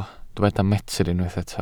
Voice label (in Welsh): "metr", Welsh